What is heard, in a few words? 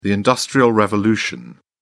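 "industrial revolution", British English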